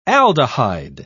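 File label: "aldehyde", British English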